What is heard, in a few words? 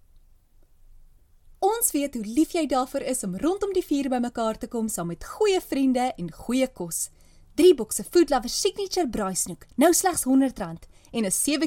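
Afrikaans, Commercial; GoLocalise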